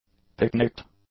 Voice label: "picnicked", english; adjectives